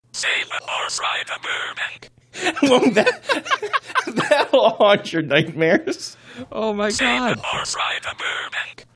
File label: whispering computer voice; haunt nightmares